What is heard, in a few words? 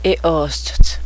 skirt, cheyenne